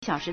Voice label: "xiao3shi2", Chinese

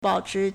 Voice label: "bao3chi2", Chinese

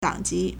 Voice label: "dang3 ji2", Chinese

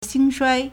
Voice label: "xing1shuai1", Chinese

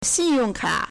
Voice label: "xin4yong4ka3", Chinese

